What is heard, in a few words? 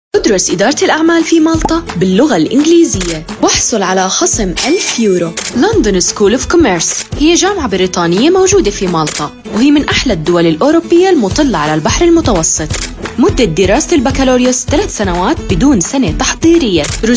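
Arabic, seslendirme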